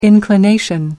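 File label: "inclination", British English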